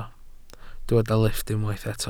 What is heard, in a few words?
"lifft", Welsh